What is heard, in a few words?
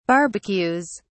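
"barbecues", British English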